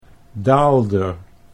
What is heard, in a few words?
"daalder", Dutch